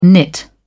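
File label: "nit", British English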